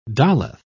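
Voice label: "daleth", British English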